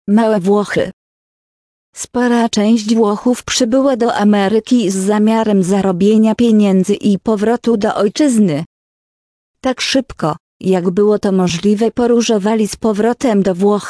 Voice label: Male Wlochy